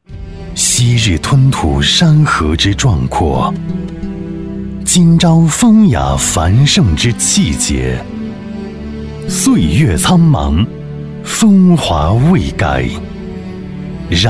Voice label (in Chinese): 男 广告 地产广告